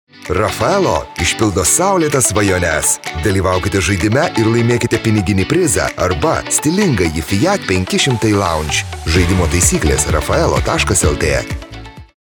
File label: male lithuanian promo